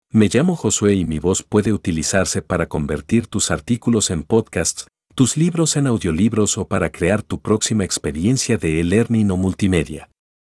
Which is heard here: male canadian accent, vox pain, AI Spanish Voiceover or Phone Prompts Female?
AI Spanish Voiceover